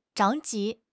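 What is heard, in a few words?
"zhao2 ji2", Mandarin Chinese; HSK3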